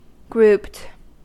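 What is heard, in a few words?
"grouped" US English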